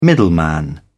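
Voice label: "middleman", British English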